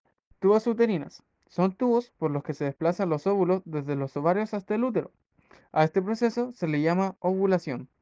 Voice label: WhatsApp Audio